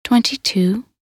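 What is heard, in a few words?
voc en min 22, English; minute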